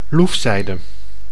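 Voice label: "loefzijde", Dutch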